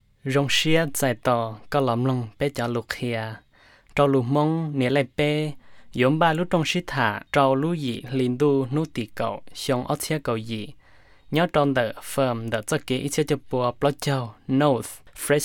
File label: Hmong